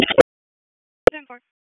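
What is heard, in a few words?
Police Dispatch, p25; Raleigh; Northeast District; wake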